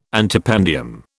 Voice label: "antependium", British